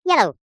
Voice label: cartoon voice high pitched says yellow

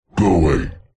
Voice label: horror demonic voice male deep says go away; zapsplat

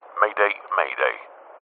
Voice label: transport aircraft pilot says mayday mayday

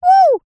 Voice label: "woo", cartoon character high pitched

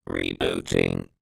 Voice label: science fiction robot says rebooting

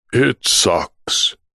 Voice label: human male deep voice english says it sucks; zapsplat

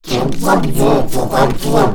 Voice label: Alien Scientist; little robot sound factory

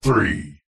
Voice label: radio production voice male processed deep says 1

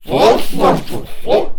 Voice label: Alien Scientist; little robot sound factory

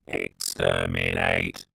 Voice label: science fiction robot says "exterminate"

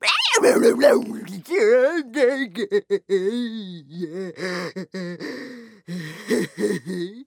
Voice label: FUN MONSTER silly talk gibberish; Jaja

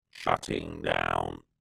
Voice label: science fiction robot says shutting down

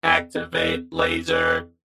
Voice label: robot soldier speech activate laser

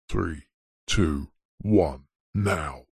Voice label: voice countdown male 3 2 1 now chorus

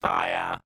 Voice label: science fiction robot says fire

